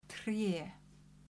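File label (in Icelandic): "tré", Icelandic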